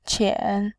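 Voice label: "qian", Mandarin, tone 3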